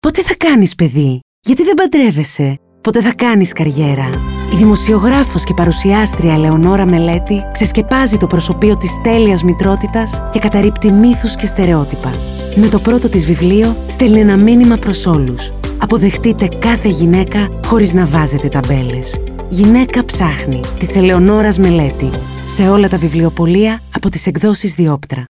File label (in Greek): Radio Spot Γυναίκα Ψάχνει